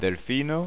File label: "delfina", Occitan